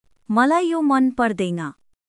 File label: Nepali, basic phrases